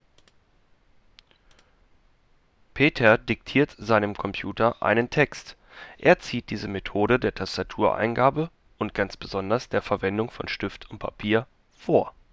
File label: Arctis Wireless PS4